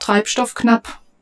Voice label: German, Low

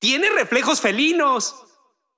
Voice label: Extreme Positive

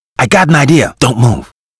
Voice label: Bonus uk; Wii

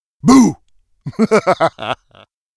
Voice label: Menu uk; Wii

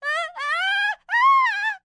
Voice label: Enemy Generic Human Spawn Disbelief

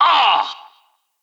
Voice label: Extreme Yeow

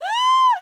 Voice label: Enemy Generic Human Spawn Disbelief

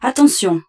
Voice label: French - Warning

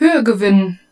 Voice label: German - Thrustup

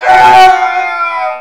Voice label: Male Die Robot; Mars Sortie

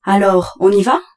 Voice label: French, Rock roll

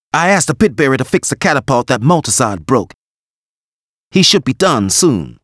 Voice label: Bonus uk; arthur and the revenge of maltazard; Wii

